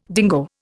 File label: "dingo", Indian